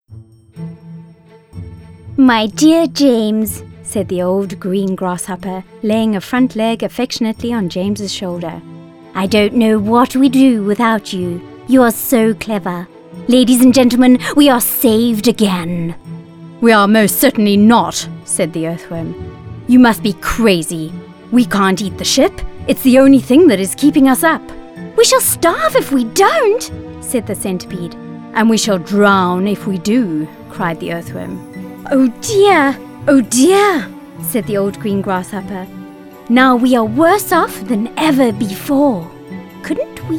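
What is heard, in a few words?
South African English, Characters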